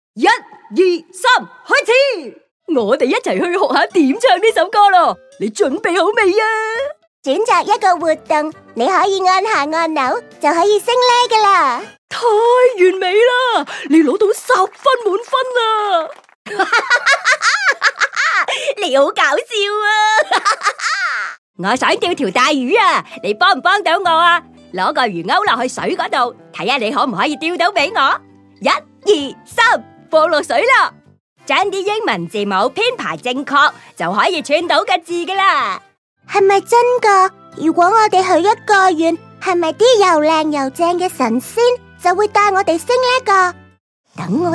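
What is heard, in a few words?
Cantonese Toys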